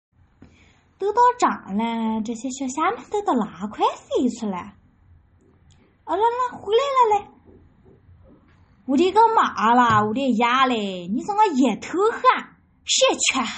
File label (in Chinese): 合肥话